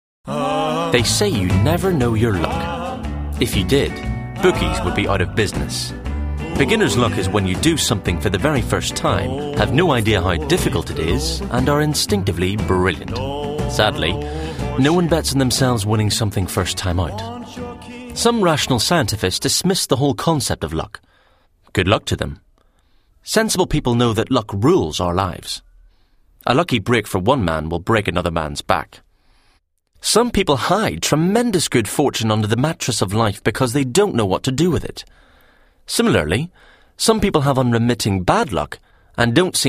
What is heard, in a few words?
narr